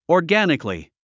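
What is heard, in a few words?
"organically", English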